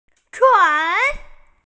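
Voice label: "tui3", Mandarin Chinese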